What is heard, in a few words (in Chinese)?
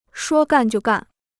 "说干就干", Chinese